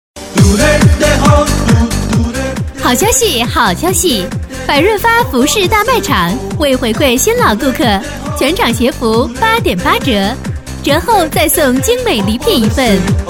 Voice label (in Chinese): 促销 女 激情; 服饰打折